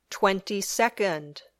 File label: "twenty second", English